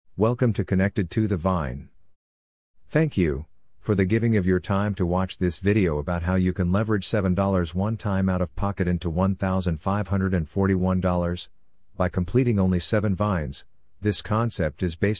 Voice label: voice over